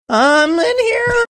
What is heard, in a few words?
saying i'm in here funny